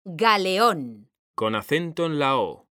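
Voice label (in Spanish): "galeón", Spanish, female; mekanta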